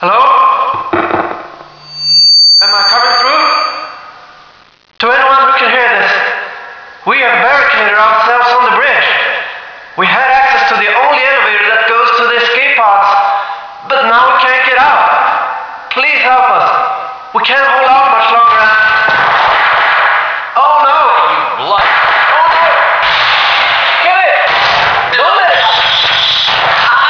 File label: distress; svencoop